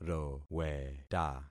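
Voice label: "roweta", concatenated syllables; Affixing experiment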